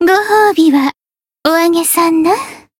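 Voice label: Voice win, Japanese; hoozuki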